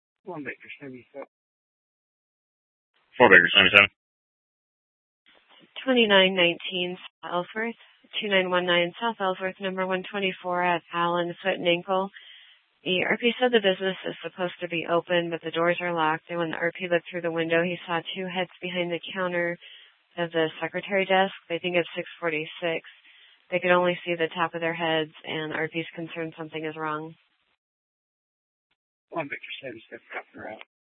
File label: Dispatch, scanner; TOPAZ Regional Wireless Cooperative (TRWC) - Mesa Simulcast; Mesa PD; 4 Superstition Dispatch